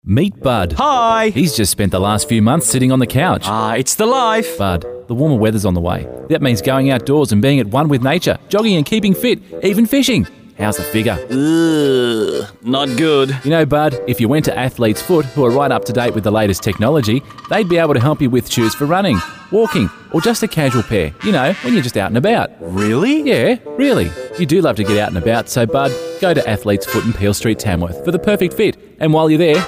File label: softsell, voiceovers